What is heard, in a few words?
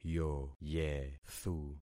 "yoyethu", concat syllables; Affixing experiment